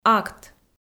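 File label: "акт", Komi-Zyrian, female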